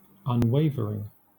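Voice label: "unwavering", English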